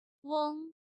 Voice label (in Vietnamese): "ueng", tiếng trung; nguyên âm